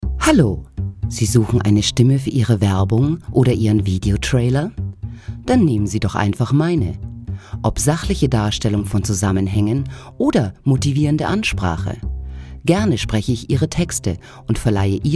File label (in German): die stimme fuer ihre werbung